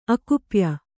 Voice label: "ಅಕುಪ್ಯ", kannada